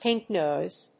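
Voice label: "pink noise", English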